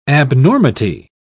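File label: "abnormity", British English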